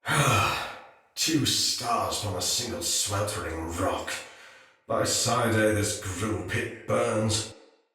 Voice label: Patrol Spoken, Soldier Male; Sinecure Soldier Male A

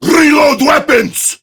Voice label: Prime Grineer Male; Warframe; Tau; Battle

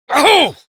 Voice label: Horse Stop Adult; Duviri

